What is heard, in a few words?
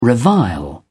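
"revile", British English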